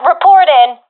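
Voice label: request report, professional female; vo; Counter Strike Model Pack; CS:GO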